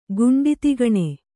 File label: "ಗುಂಡಿತಿಗಣೆ", Kannada; guṇḍi tigaṇe; noun; a paddy bug.